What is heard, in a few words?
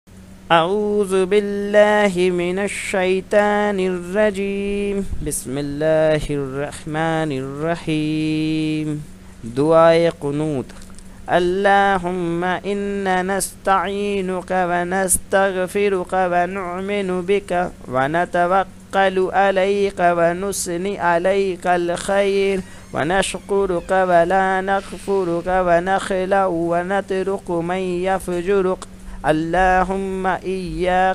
Dua e Qanoot with Urdu Translation; Masnoon Duain